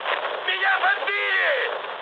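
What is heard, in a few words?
Russian aircraft destroyed mood high, speech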